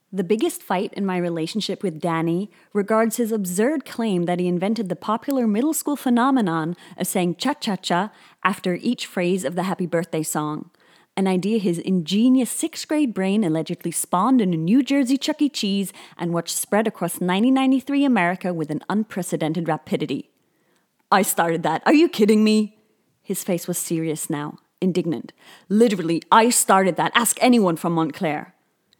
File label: Audiobook US